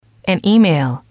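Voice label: "an email", English, female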